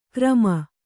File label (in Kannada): "ಕ್ರಮ", Kannada